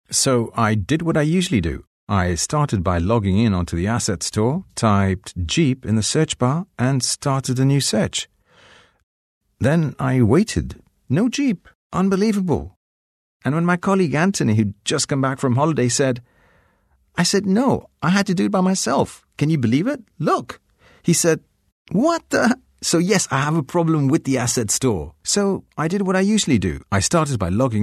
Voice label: indian male natural role play